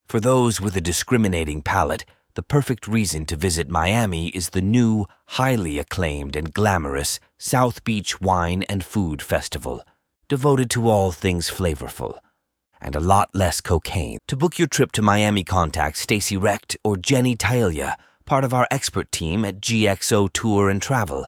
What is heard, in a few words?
tour miami male, fake sponsors